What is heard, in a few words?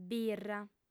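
"birra", Sardinian, female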